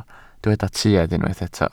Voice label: "tuedd", Welsh

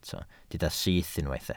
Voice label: "syth", Welsh

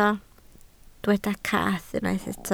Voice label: "cath", Welsh

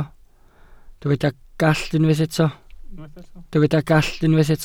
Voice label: "gallt", welsh

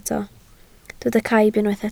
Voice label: "caib", Welsh

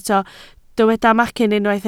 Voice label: "macyn", Welsh

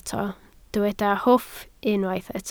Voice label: "hwff", Welsh

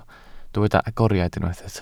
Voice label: "agoriad", Welsh

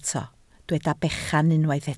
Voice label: "bechan", Welsh

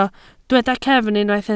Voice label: "cefn", Welsh

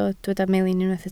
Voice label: "melin", Welsh

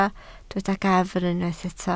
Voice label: "gafr", Welsh

